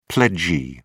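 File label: "pledgee", British English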